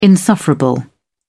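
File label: "insufferable", British English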